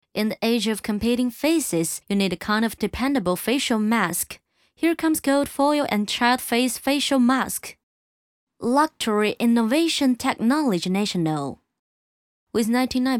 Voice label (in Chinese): 女 英文专题 讲述感